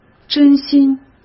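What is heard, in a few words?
"真心", Mandarin Chinese; chinese-flashcards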